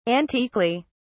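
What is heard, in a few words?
"antiquely", British English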